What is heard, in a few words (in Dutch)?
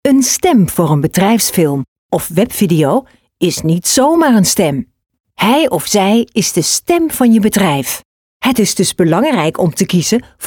BEDRIJFSFILM